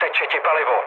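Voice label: tank damaged, Czech, mood low; speech; War Thunder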